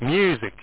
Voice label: w music; JSF demo